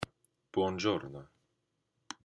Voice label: Hello Italian